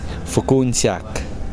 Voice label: fruit, Kosraean